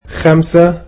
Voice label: "five", egyptian